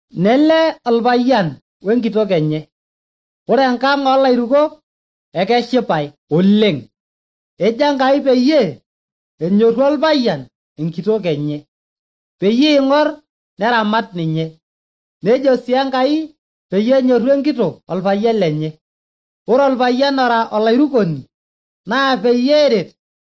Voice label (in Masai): maasai bsv nt drama; good news; bible